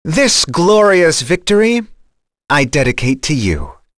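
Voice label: Vox Victory, English; Fluss; kingsraid; voices heroes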